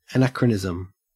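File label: "anachronism", Australian English; pronunciations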